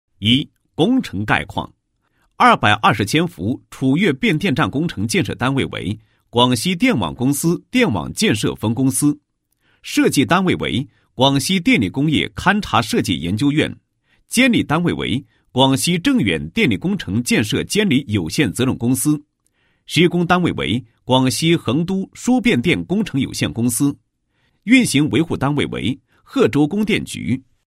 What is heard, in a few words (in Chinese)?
男27-工程解说; 楚越变电站工程解说词